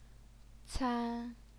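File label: "can", Mandarin Chinese, first tone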